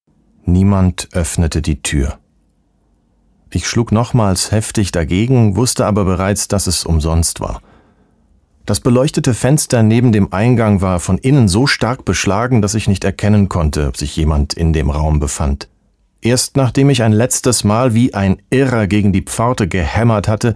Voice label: german audiobooks; actor de doblaje profesional